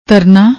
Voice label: "tarnaa", Punjabi; ਤਰਨਾ